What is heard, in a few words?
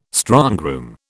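"strongroom", British English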